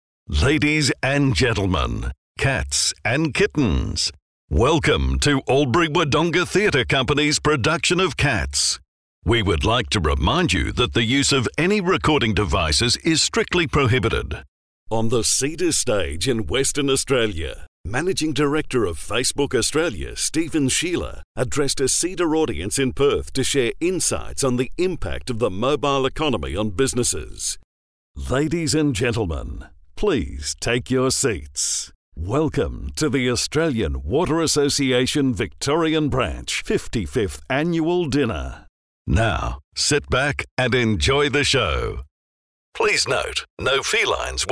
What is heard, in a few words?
Live Announcer